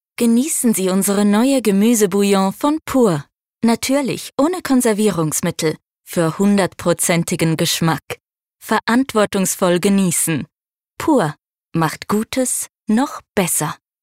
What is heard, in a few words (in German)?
Werbung CH DE, hoch